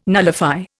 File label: "nullify", Indian